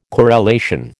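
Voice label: "corelation", American English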